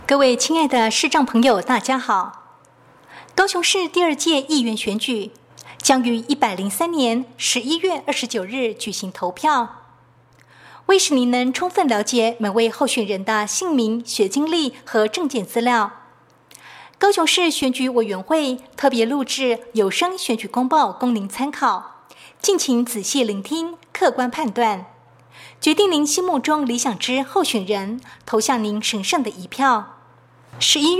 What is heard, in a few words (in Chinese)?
國語; 第一選區